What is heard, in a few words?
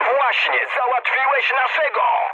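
Polish player killed ally mood high; War Thunder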